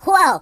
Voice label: Jump, Mobster; hedgewars

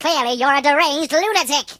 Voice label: "Nutter", British

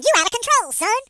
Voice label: HillBilly, Ill get you; hedgewars

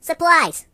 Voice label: Reinforcements, voices Mobster; hedgewars

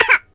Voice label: "Ooff", Surfer voice; hedgewars

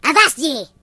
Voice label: "Cut it out", Pirate; hedgewars

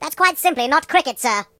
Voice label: "Traitor", British; hedgewars

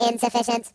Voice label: "Bugger", Robot; hedgewars

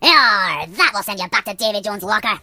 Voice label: Amazing, Pirate voices; hedgewars

